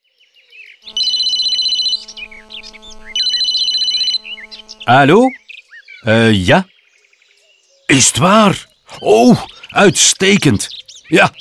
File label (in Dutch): audioguide nl enfant; modave